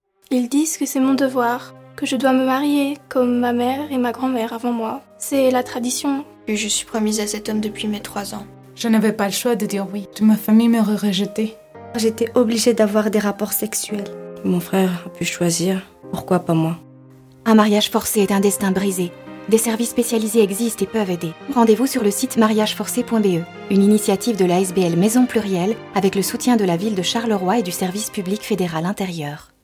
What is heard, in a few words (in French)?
spot radio